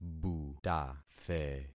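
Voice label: "butathe", concatenated syllables; Affixing experiment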